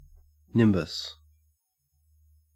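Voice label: "nimbus", Australian English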